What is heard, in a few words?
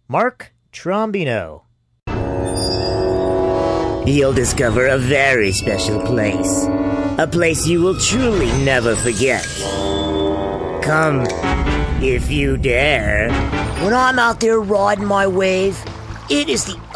Commercial, Male voices